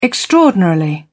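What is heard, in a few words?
"extraordinarily", British English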